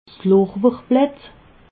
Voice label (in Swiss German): "lorbeerblett", alsace